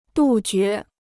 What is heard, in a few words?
"杜绝", Chinese